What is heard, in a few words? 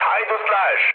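Turkish enemy detected, mood med, speech; War Thunder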